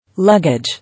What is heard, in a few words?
"Luggage", English